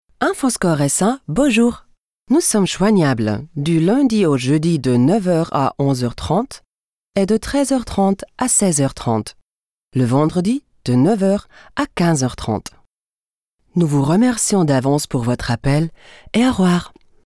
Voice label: frz